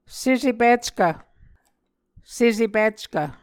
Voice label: "sesepickv", Muscogee